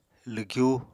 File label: "lwgyu", Apatani